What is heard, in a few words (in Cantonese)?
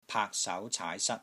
"PAAK3 SAU2 CAAI2 SAT1", Wing Chun; Wing Chun Elements Glossary